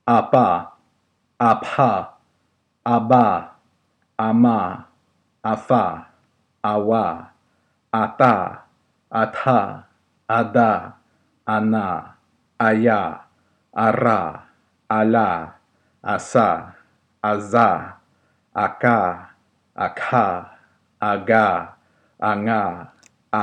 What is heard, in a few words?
Hellenistic Consonants